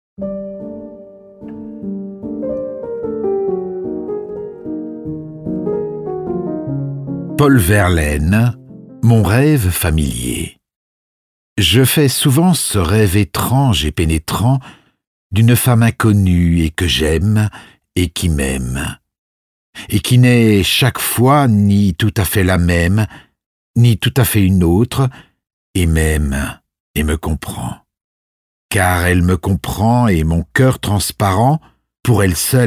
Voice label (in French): Livre audio POEME; hoerbuch; P-VERLAINE